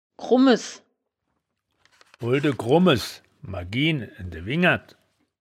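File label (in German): "krummes", Wittlicher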